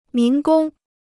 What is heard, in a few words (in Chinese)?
"民工", Chinese